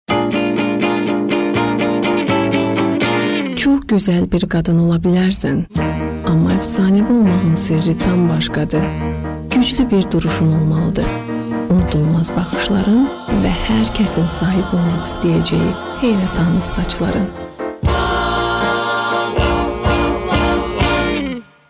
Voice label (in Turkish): Azerice Kadin, Azerice seslendirme